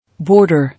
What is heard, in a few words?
"Border", English